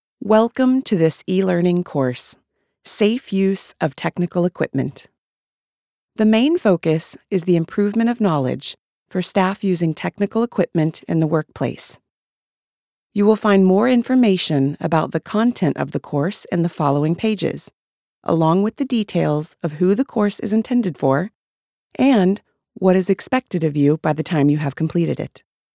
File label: US e-learning